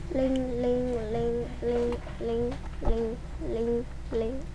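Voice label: "ring", spoken word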